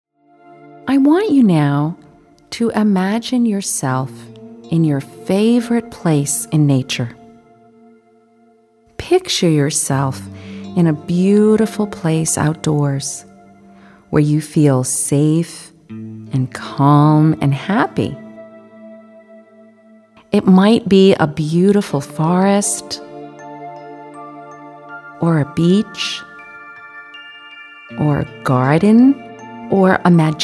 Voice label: prog relaxation